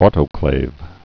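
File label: "autoclave", spoken word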